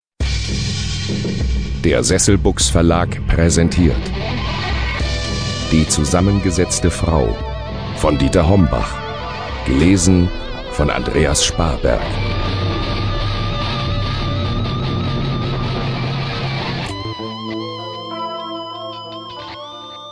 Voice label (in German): Ausschnitt Hörbuch